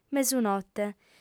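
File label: "mesunote", female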